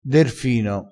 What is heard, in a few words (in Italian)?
"derfino", crespinese